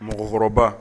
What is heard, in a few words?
"mɔgɔkɔrɔba", Bambara